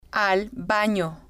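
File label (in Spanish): al Bano, spanish, female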